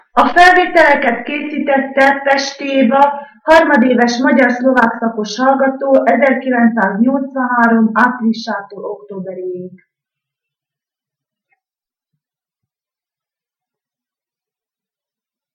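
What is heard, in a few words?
dialect collection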